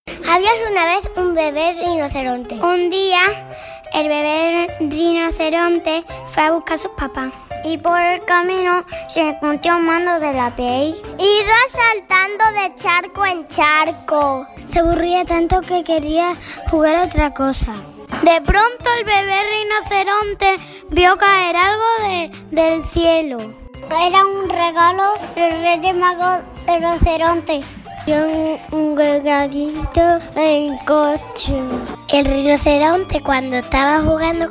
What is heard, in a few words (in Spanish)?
Infantil 5 anos con su maestra; radiolobitos